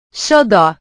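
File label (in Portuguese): "xodó", Portuguese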